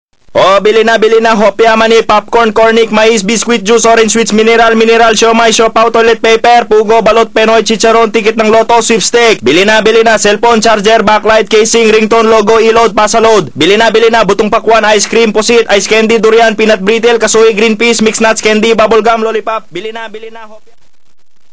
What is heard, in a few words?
Bus Vendor, notifications; MyPhone my96 DTV